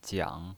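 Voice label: "jiang", Mandarin Chinese, third tone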